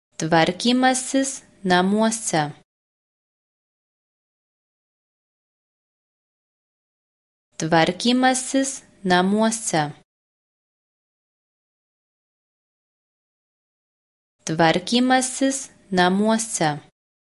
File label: Lithuanian Vocab Builder House cleaning